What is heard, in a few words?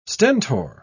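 "stentor", British English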